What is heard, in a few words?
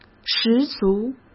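"十足", Mandarin Chinese; chinese flashcards; hsk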